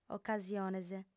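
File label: "ocasiones", female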